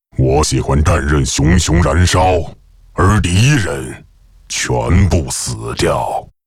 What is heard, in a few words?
pissed